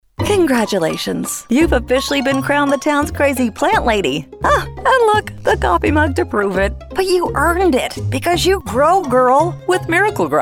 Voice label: Commercial